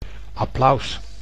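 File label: "applaus", Dutch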